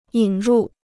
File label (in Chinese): "引入", Chinese